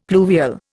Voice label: "pluvial", Indian; Dictionary

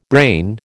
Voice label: "brain", American

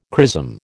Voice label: "chrism", American

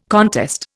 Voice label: "contest", Indian

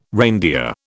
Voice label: "reindeer", British

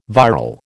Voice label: "viral", American

